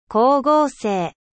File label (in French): "photosynthese", 光合成